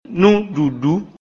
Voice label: "Núɖùɖŭ", Action de manger; Mina

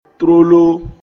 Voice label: "Aussitôt", Mina; Tróló

